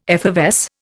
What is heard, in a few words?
"effervesce", Indian